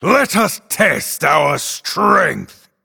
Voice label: Male Night Elf Start; Vashj